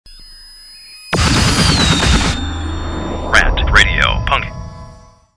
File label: Promo Punk; Rant Radio Punk; RantMedia; Station Promos-Listener Submitted